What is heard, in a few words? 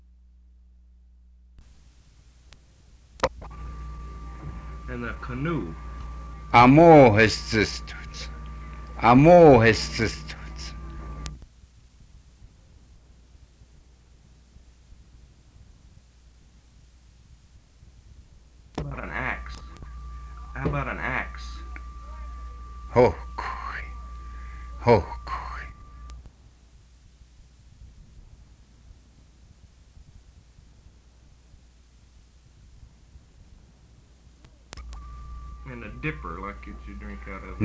Cheyenne exercises